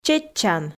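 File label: "чеччан", Komi, female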